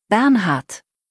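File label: "Bernhard", German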